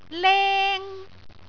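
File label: "leng1", Cantonese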